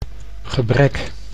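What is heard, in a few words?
"gebrek", Dutch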